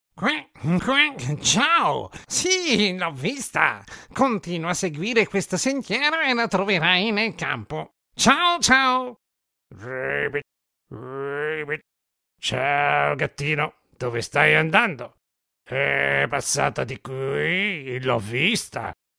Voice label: Cartoon Duckling And Frog